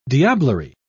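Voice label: "diablerie", British English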